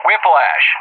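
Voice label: "Whiplash", English; JTAC; Callsign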